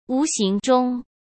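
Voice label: "无形中", Mandarin Chinese; chinese-flashcards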